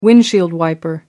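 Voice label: "windshield wiper", British English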